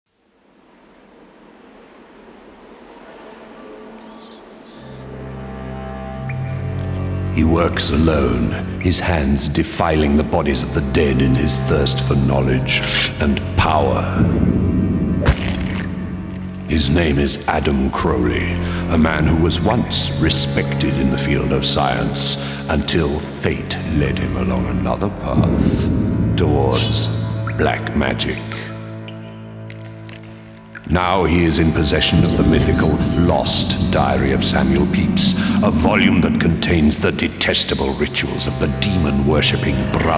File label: Narrative; Nightmare Creatures